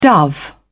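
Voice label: "dove", British English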